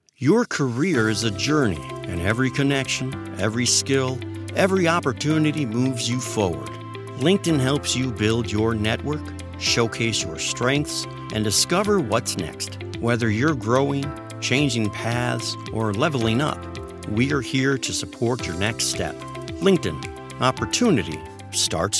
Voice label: radio commercial trustworthy; Linkedin